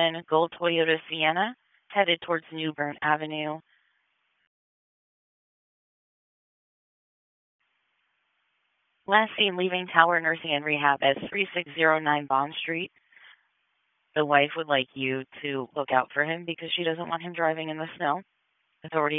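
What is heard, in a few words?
Police Northeast District Dispatch, Raleigh; wake p25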